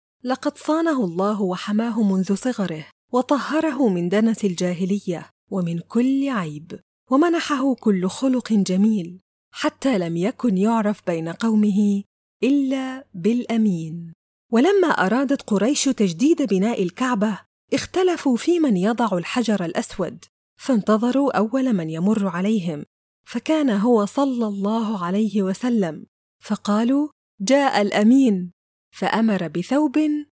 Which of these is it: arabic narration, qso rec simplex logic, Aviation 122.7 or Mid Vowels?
arabic narration